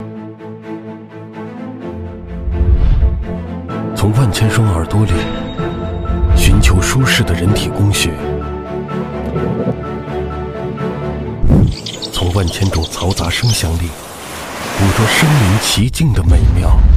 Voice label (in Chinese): 男 广告 无线蓝牙耳机; 英菲克